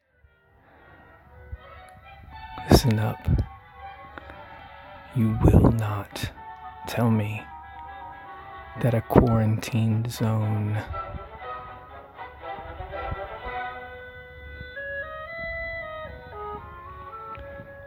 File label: REVERSE SPEAK